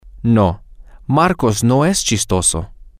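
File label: no is not funny, Spanish, male; Marcos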